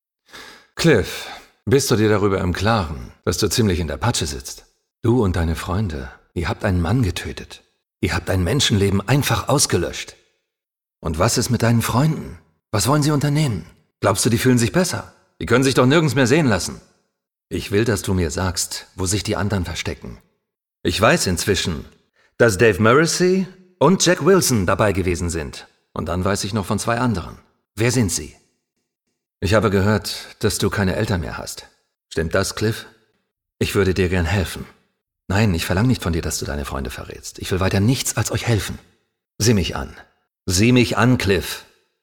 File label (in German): Synchron Sheriff, DE